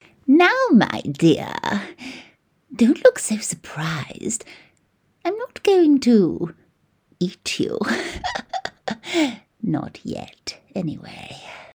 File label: WITCH, Voice Over